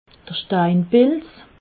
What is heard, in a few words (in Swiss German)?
"steinpilz", alsace